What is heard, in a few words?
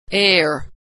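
"air", American English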